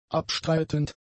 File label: "abstreitend", german; adjectives